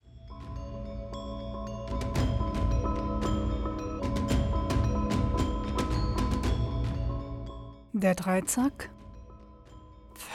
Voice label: spiel trailer

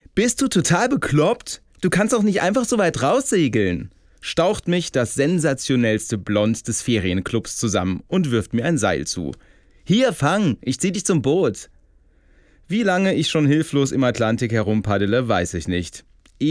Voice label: Hörbuch; Vollidiot; Hörbuch Erwachsene

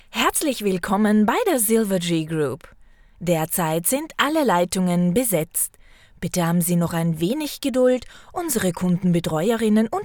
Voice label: Warteschleife Business; Silver Tree

